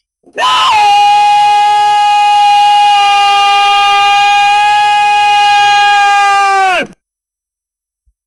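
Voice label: firm police officer command; authoritative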